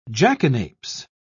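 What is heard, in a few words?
"jackanapes", British English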